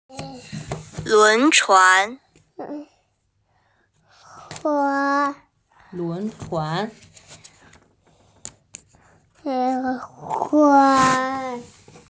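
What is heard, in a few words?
"lun chuan", Mandarin Chinese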